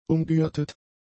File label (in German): "umgürtet", German; adjectives